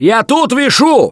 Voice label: Ledge Hang Start, russian, Survivor Voice; Gambler